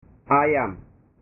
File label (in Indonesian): "ayam", bahasa